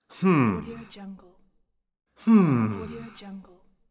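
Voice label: "hmm", male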